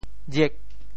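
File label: "jiek8", Teochew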